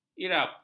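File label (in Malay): "irap", Malay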